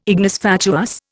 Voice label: "ignis fatuus", Indian